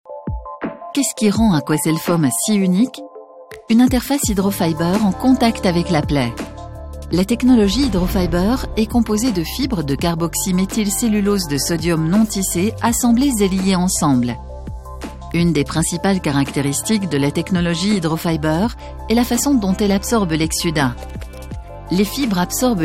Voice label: elearning voix off; aquacel foam

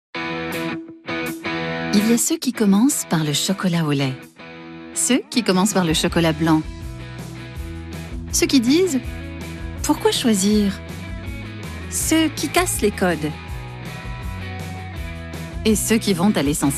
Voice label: pub duo voix off; kinder

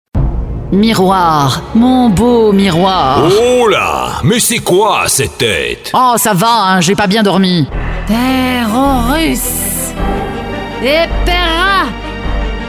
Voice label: dessin anime sorciere; showreel

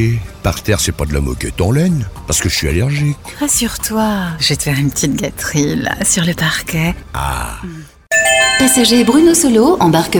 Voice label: pub sexy voix off femme; showreel

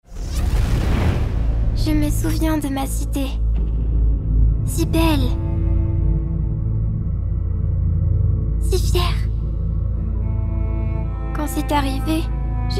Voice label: voix off enfant; dofus ankama

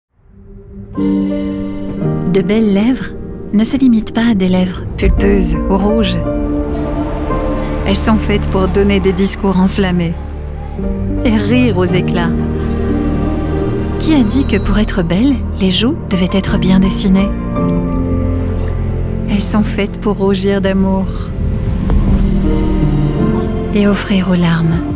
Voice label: Voix off femme - Pub Tv; Nocibe

